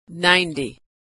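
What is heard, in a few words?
"number 90", American English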